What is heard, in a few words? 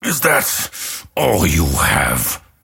lasthit pain; dota; grimstroke